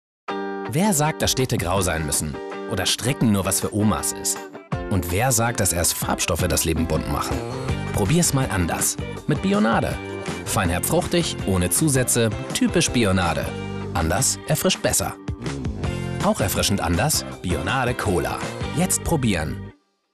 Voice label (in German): tv spot; bionade